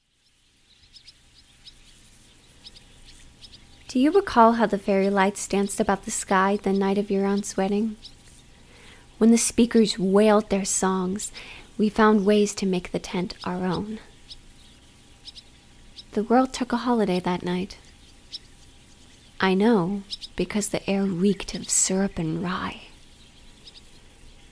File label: Handkerchief Poem